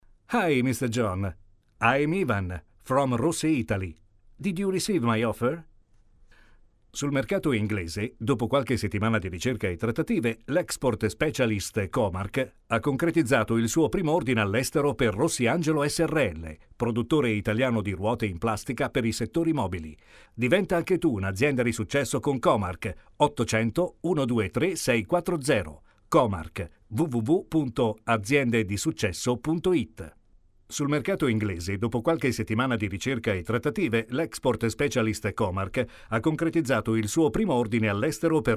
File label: spot; comark